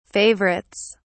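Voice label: "favorites", British English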